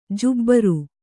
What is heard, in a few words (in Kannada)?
"ಜುಬ್ಬರ", Kannada